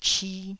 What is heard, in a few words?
"chi1", taiwanese